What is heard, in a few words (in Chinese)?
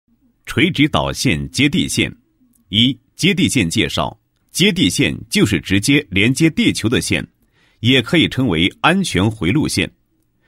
男 解说专题, 配音; 垂直导线接地线介绍